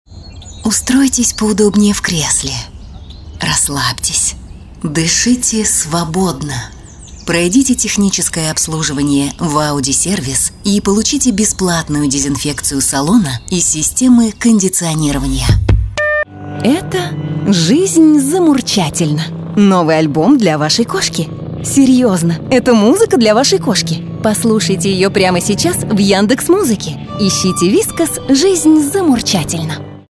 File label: russian voice over female commercial